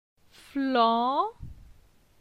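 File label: "Flan", French; phonetique; la syllabe an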